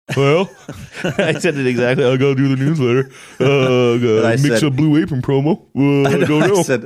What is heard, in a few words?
speaking in a deputy dog like manner